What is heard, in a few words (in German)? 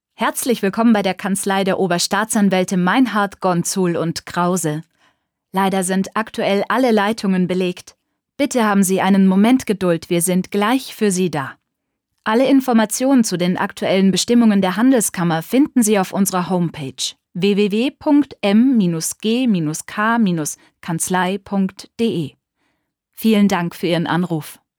Telefonhotline